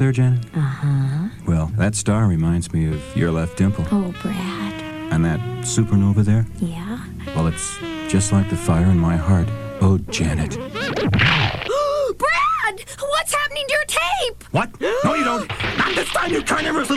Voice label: Radio Commercials Car Stereo; Pioneer

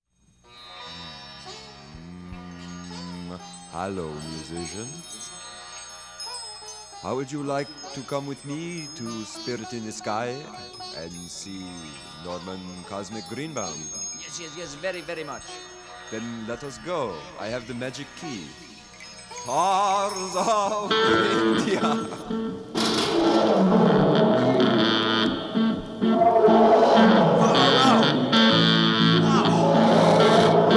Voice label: Radio Commercials; 1970

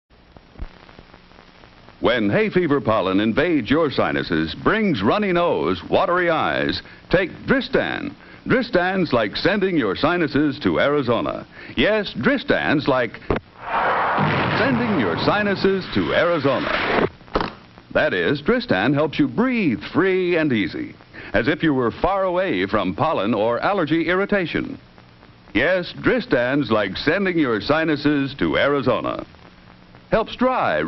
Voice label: Commercial; 1950s; Dristan; Arizona

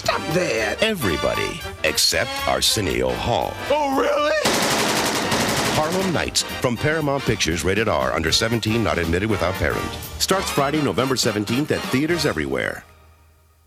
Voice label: Movie Radio Spot; Harlem Nights

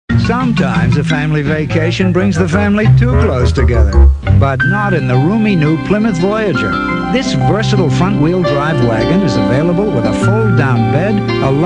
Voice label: Radio AD; Motor City Radio Flashbacks; Chrysler Plymouth Voyager; 1987

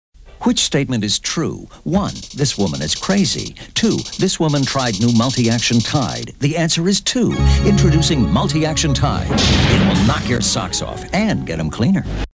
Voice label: Radio Commercials 1980s Detergent; Tide

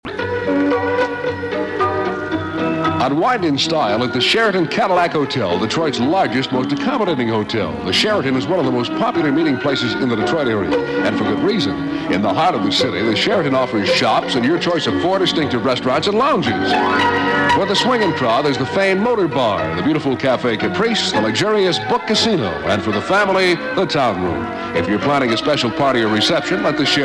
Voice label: Commercial; WKNR AM; Dick Purtan; Sheraton Hotel

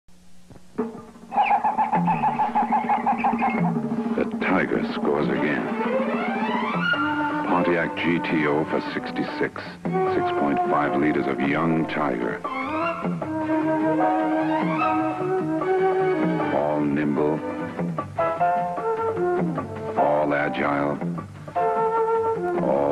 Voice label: TV Ad; 1966 Pontiac GTO; Tiger

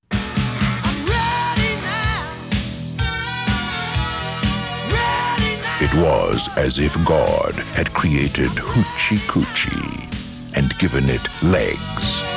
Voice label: Radio Commercials

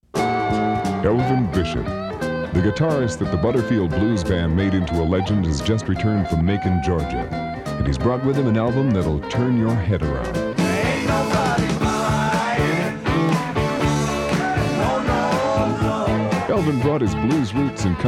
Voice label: Radio Commercials; 1974

